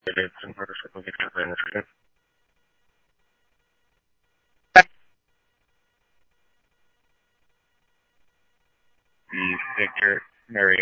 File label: Police, scanner audio trunk; H-Scottsdale